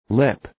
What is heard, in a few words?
"lip", English